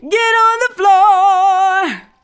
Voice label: GET ON THE, FEMALE VOICE; Best Service; Hallelujah